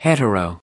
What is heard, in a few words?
"hetero heterosexual", us